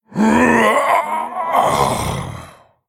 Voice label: Male Human Death